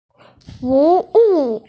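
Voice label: "fuwu", Mandarin Chinese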